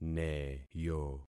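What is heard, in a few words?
"neyo", concatenated syllables; Affixing experiment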